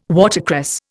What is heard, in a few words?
"watercress", Indian